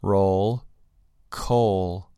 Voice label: "roll" "coal"